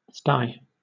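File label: "sty", British English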